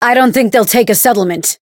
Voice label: Voice encount fear